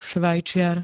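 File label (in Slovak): "Švajčiar", Slovak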